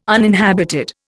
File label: "uninhabited", Indian